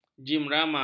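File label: "gimrama", Malay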